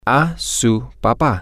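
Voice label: to your father, spanish, male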